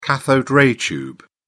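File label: "cathode-ray tube", British English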